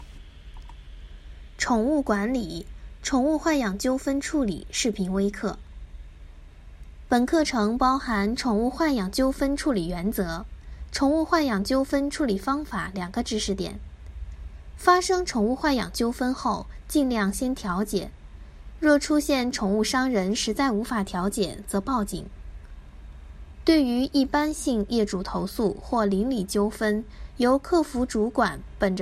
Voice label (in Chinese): 宠物管理宠物豢养纠纷处理视频微课